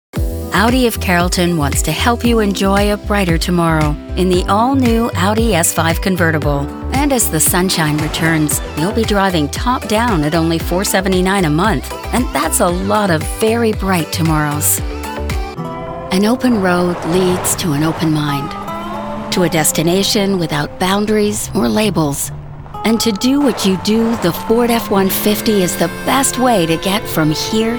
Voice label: english american automotive